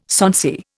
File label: "sonsy", Indian